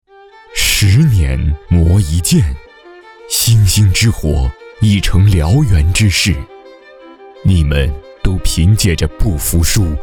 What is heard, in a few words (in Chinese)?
品质广告低沉浑厚; 茅台王子酒